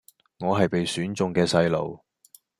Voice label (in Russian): Голоса, Гонконгский